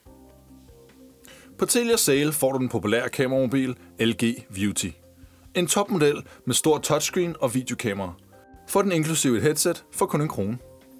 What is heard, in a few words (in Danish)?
Reklame